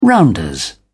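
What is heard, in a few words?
"rounders", British English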